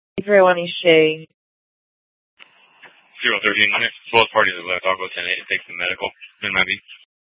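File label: police dispatch, Scottsdale PD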